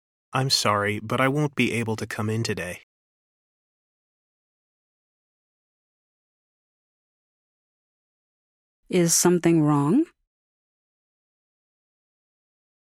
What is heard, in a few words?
Pronunciation Practice; Connectivity 3